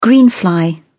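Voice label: "greenfly", British English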